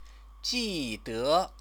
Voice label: "jide", Chinese; 记 得; remember; resultative verb phrase